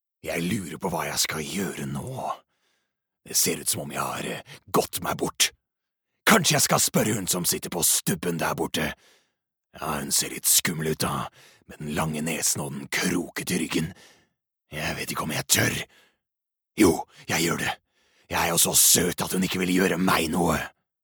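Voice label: Norwegian dub bad guy, male; norsk; mann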